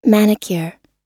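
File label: "manicure", US English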